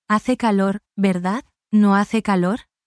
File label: spanish, spanish phrases you can use in any workplace